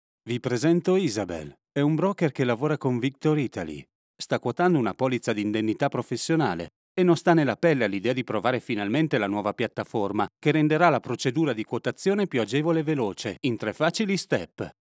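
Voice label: e-learning, Italian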